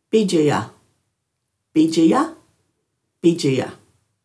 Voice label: "bidjaya", Dhurga

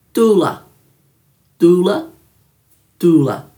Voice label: "dhuula", Dhurga

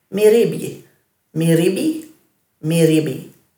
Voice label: "mirribi", Dhurga

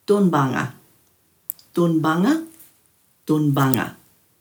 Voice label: "dhunbanga", Dhurga

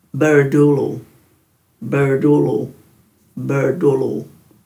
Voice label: "burdulu", Dhurga

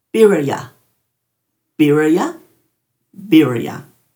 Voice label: "biraya", Dhurga

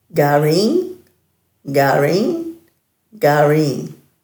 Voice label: "gaariin", Dhurga

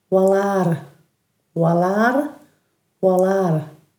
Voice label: "walarr", Dhurga; head; ngala; dulagaal

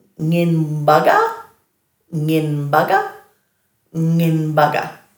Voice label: "nginbaga", Dhurga; I don't know

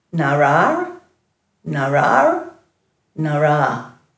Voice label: "naaraa", Dhurga

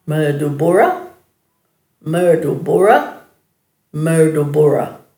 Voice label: "murdubura", Dhurga

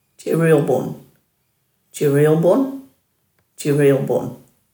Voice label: "djiriilbun", Dhurga

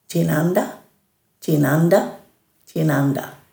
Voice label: "djinganda", Dhurga

